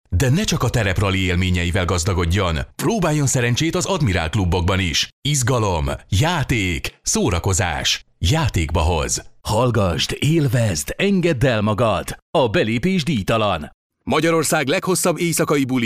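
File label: Hungarian, male, commercial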